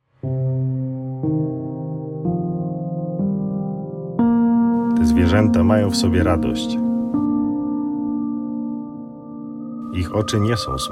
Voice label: voice spot